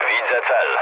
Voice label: enemy detected mood low, speech pol; War Thunder